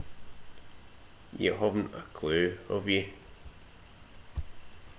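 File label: "huvnt", slang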